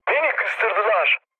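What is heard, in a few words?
Turkish aircraft destroyed, mood low, speech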